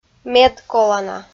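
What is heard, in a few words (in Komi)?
"медколана", Komi-Zyrian, female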